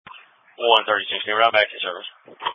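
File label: Police Patrol (Dispatch)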